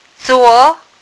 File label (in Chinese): "zuo2", phonics